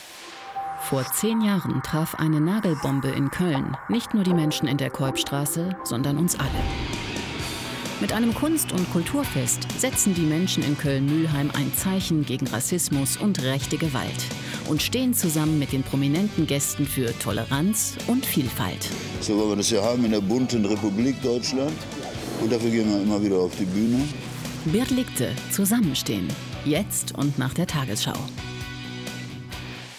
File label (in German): TV TRAILER; Keupstr; WDR